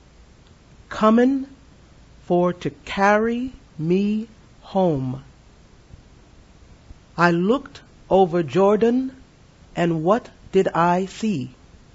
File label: pronunc swing low